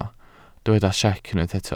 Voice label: "siec", Welsh